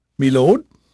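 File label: English male, voice; Pirates Of The Caribbean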